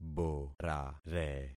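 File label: "borare", concatenated syllables; Affixing experiment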